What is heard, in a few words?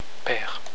"pere", french